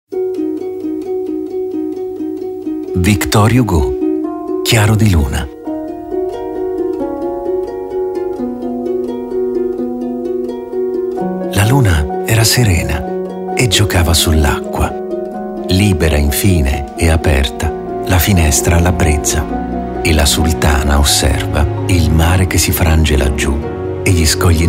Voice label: Italian narration; professional Italian voice over artist

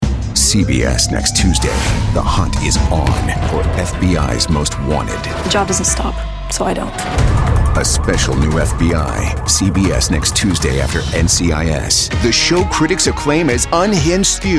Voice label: english american promos

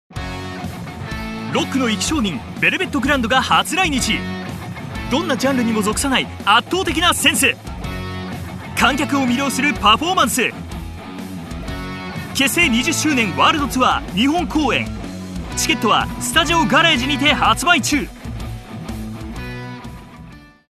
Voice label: japanese promos

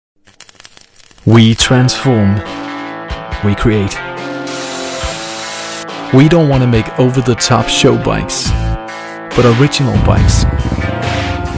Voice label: English promos

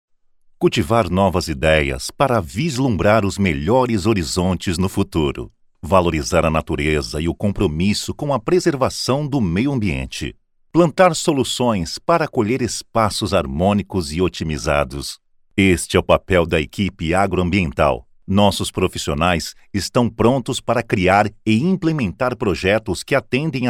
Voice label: portuguese brazilian narration

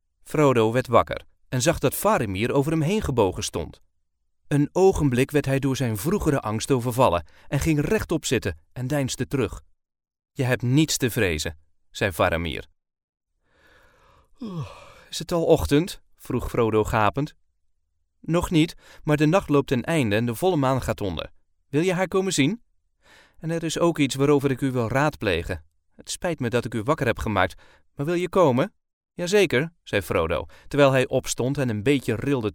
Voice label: dutch corporate videos

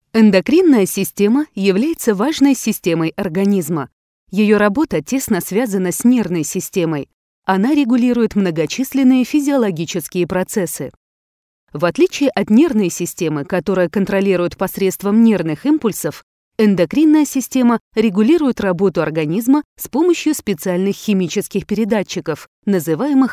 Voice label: russian documentaries

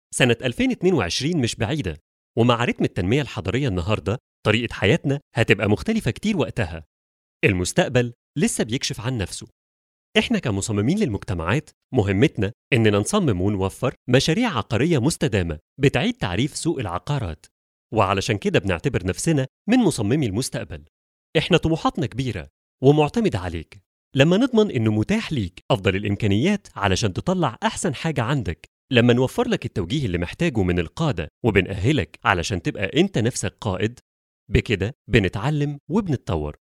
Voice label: arabic training e learning

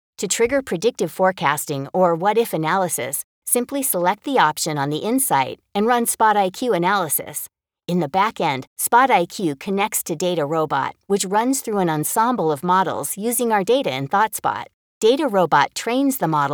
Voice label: english american explainer videos

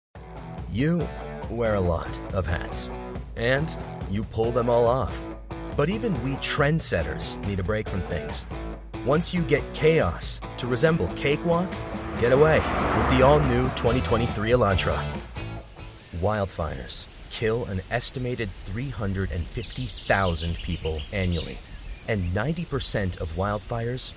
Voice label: english canadian commercial; queer, discapacitado y no sindicalizado

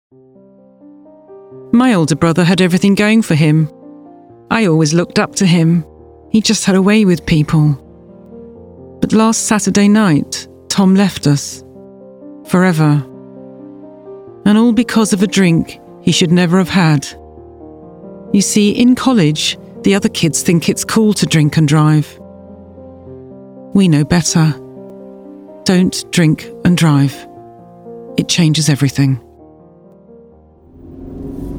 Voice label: english british

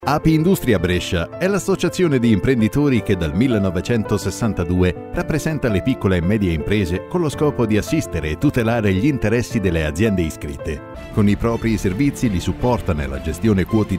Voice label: italian corporate videos

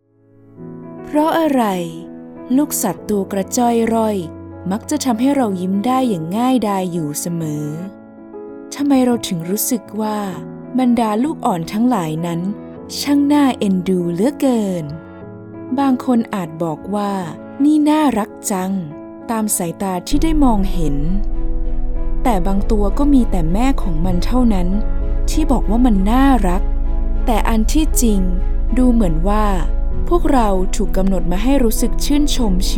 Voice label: thai documentaries

